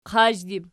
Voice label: "qazhdib", Archi

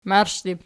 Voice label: "marshdib", Archi

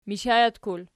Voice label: "mishajetkul", Archi

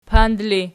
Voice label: "pand", Archi

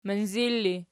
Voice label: "minzir", Archi

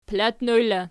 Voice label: "palatnoj", Archi

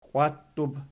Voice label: "qiwattub", Archi

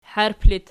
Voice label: "harp", archi

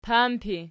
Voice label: "pampi", Archi

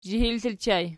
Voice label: "zhihil", Archi

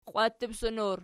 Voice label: "qwattutsson", Archi

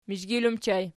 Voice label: "mizhgil", Archi

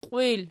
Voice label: "qiwil", Archi

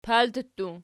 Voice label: "palti", Archi

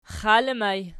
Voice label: xali erg, archi

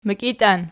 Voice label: "mikitian", Archi

